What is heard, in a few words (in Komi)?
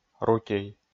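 "рутей", Komi, male; kpv audio dict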